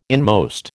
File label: "inmost", American